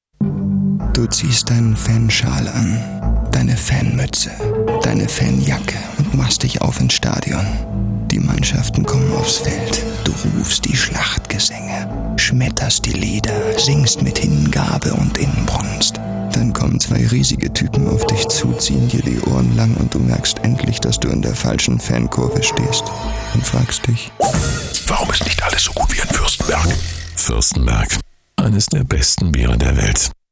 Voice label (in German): werbung; Fürstenberg Werbespot - Fankurve